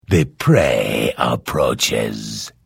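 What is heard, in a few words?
Hello, Vo; Morrowind GOTY GOG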